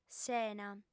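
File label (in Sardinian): "sena", female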